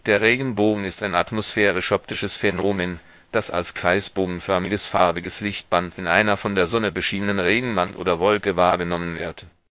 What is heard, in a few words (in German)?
speaker, de DE; piper-voices